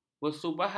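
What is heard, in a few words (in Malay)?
"bersubahat", Malay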